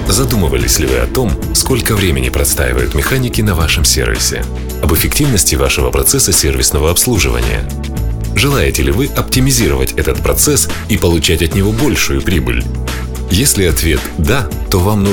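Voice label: positive, Russian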